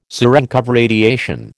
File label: "Cerenkov radiation", American